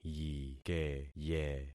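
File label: "yikeye", concatenated syllables; Affixing experiment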